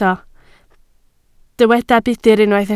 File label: "budr", Welsh